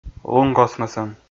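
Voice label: "лункосмӧсӧн", Komi, male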